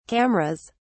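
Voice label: "cameras", British English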